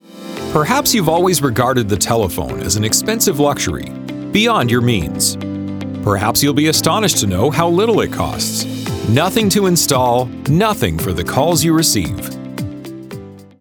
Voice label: Phone Commercial